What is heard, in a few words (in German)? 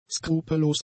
"skrupellos", german; adjectives